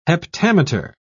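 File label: "heptameter", British English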